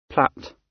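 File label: "plait", British English; Time, history; Thời gian, lịch sử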